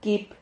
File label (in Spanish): "quip", Silabas